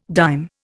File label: "dime", Indian